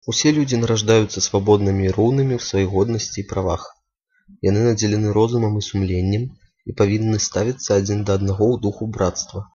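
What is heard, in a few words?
Belarusian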